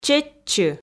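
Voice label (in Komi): "чеччы", Komi, female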